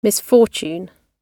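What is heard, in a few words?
"misfortune", British English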